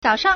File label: "zao3shang3", Chinese; 早上; zǎoshàng; early morning